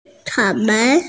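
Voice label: "cao3mei2", Mandarin Chinese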